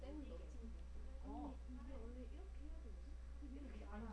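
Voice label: "handle", spoken word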